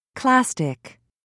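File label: "clastic", English, uk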